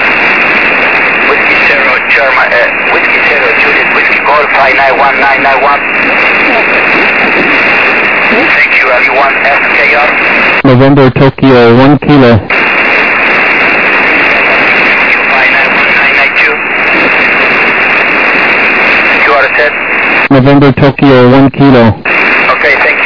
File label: USB 28MHz; ARRL10M